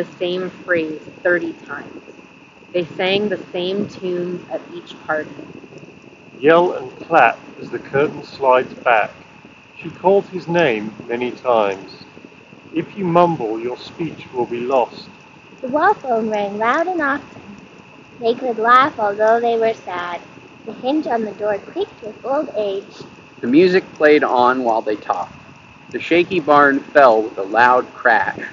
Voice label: Edifier Stax Spirit S3 Wind microphone sample